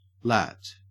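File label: "lat", Australian English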